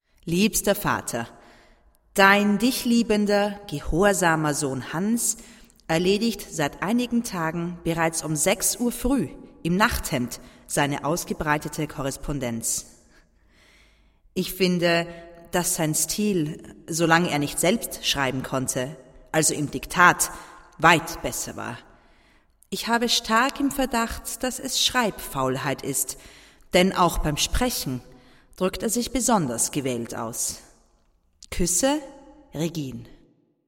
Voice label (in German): Hörbuch; Hans Weigel